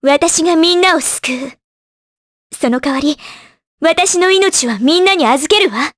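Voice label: vox Japanese; Artemia; kingsraid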